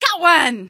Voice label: got one, female; Source Engine; video game; npc